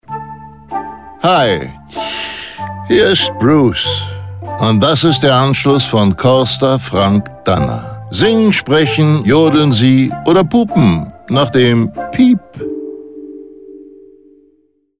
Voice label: Mailbox Ansage; Telefonansage; 1a-telefonansagen

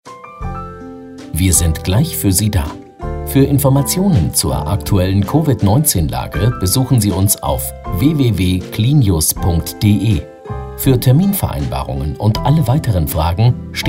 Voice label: COVID 19 Ansage; Clinius

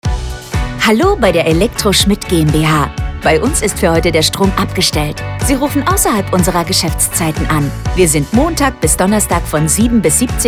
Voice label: ausserhalb der Geschaeftszeiten, Telefonansagen; Elektro Schmidt; elektrotechnik elektriker elektrogeschaefte elektromaerkte

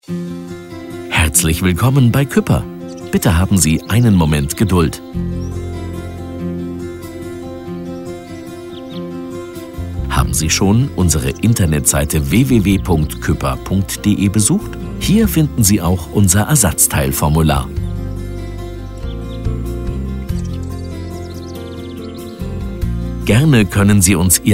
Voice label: Warteschleife; Telefonansagen für Sanitär & Heizung (SHK); Kuepper

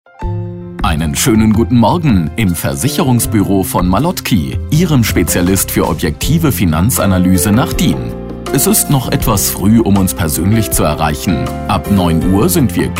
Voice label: Ansage; Di bis Fr vor 9; Telefonansage Versicherung

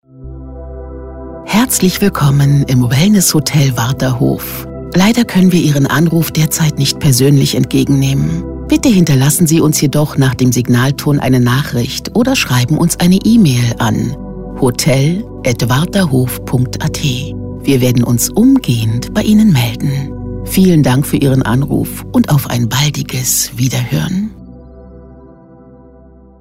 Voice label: Wellnesshotel Ansage; Wellnesshotel Warther Hof

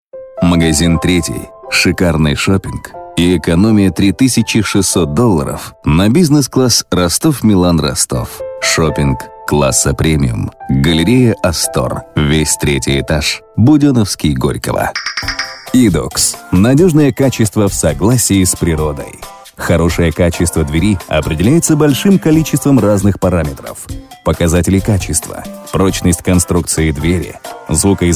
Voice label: telefonansagen sprecher ru; Native Speaker

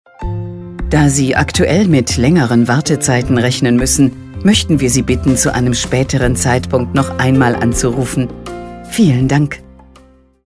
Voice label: Ansage stereo; Telefonansage Arzt – Praxis – Hausarzt – Augenarzt – Hautarzt – HNO – Arztpraxis – Ärzte

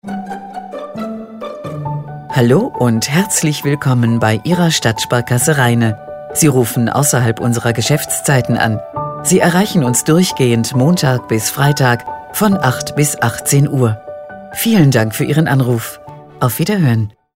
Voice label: Ansage

